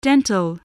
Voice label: "dental", English